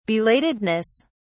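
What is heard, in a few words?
"belatedness", British English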